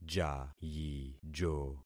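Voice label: "jayijo", concatenated syllables; Affixing experiment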